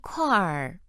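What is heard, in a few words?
"kuair4", Chinese, female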